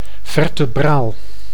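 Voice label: "vertebraal", Dutch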